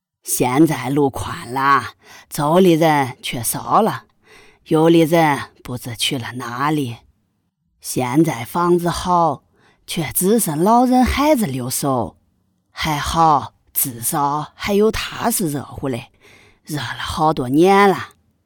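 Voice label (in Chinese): 河北 女 河北话 保定话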